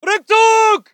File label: voice ger infantry